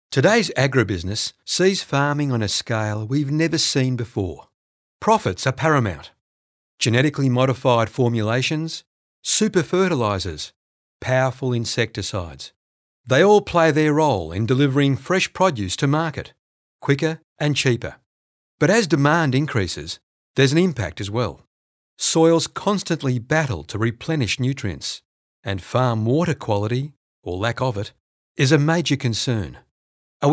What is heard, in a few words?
TVC Regional